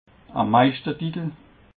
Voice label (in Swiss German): "meischter titel", alsace